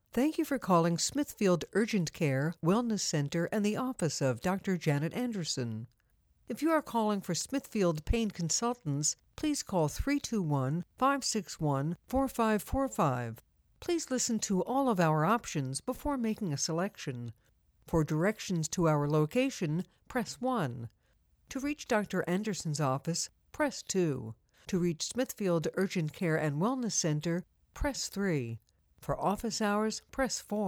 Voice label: Telephone Greeting